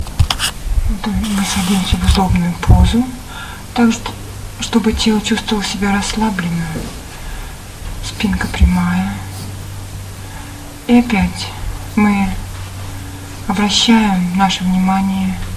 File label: Breathing meditation; morning; retreat